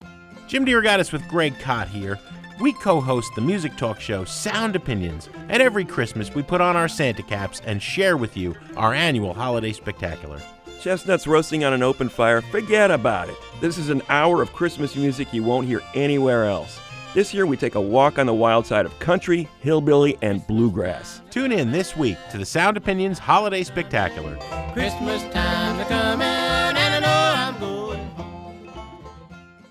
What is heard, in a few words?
Xmas Promo